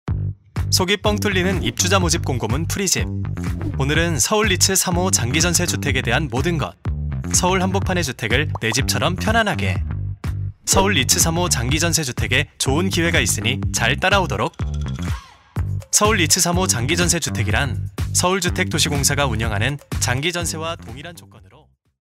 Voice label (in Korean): 홍보